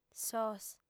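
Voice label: "sos", female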